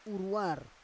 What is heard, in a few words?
"uruar", Roon